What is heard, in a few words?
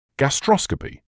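"gastroscopy", British English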